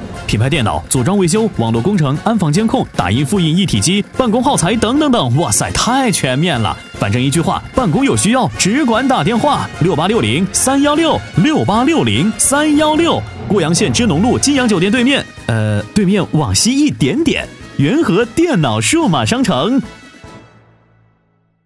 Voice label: 风趣 广告 电脑店

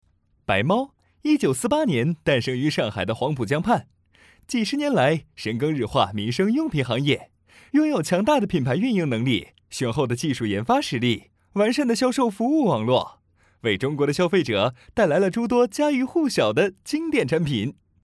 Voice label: 企业宣传轻松

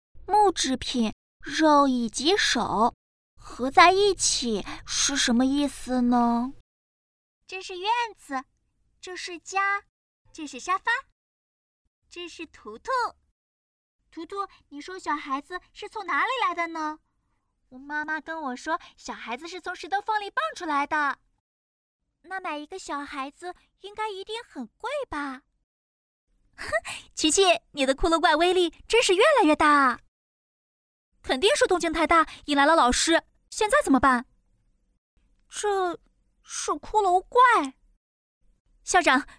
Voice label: 女童动画多角色

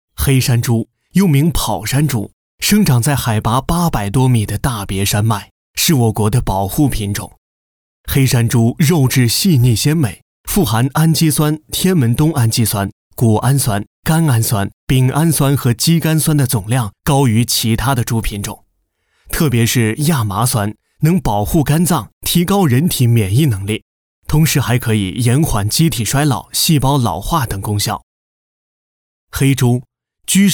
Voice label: 宣传片

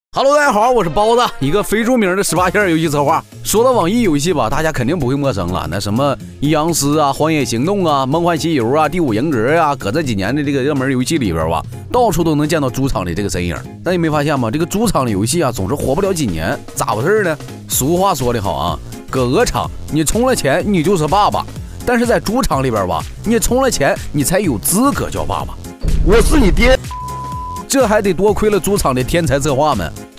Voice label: 东北搞笑解说

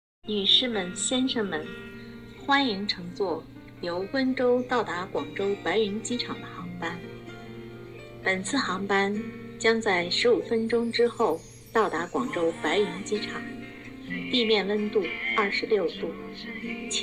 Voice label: 机长广播

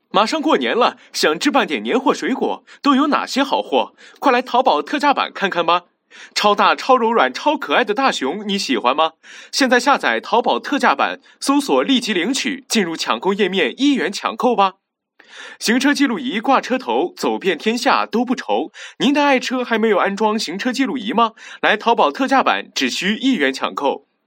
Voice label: 促销广告男声

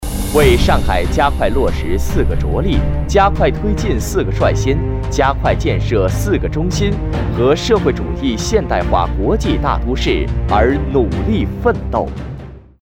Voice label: 纪录片

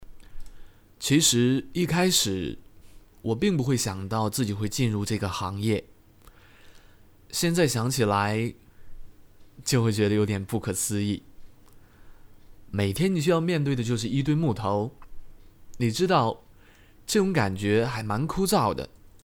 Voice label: 台湾腔

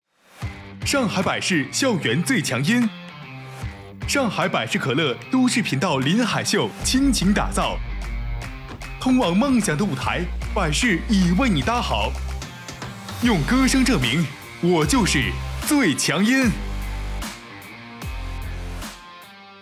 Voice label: 广告激情; 上海百事可乐

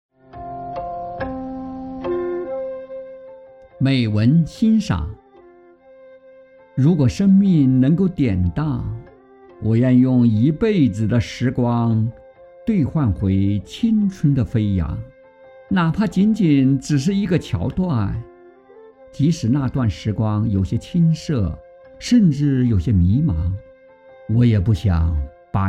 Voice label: 美文欣赏; 如果能典当

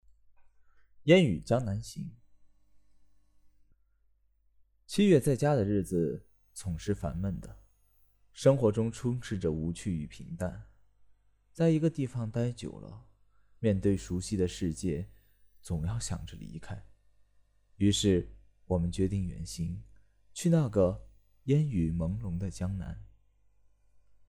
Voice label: 朗读

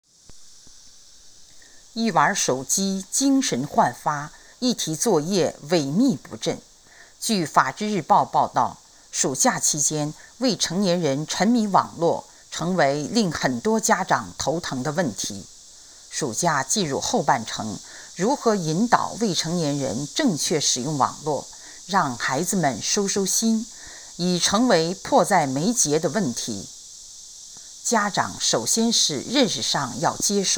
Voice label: 新闻片断

